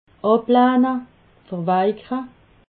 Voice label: "ablahne", alsace

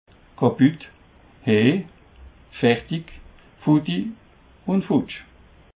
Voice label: "kaputt", Alsace

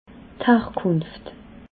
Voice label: "harkunft", alsace

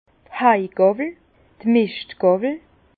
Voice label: "haigawwel", Bas Rhin; fourche; vin

